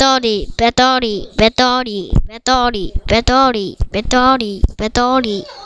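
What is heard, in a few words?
"battery", spoken word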